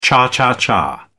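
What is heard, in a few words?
"cha-cha-cha", British English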